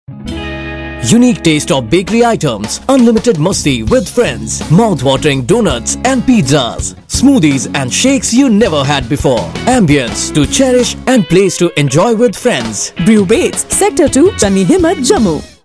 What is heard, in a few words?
Radio Ads